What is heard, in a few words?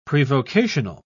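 "prevocational", British English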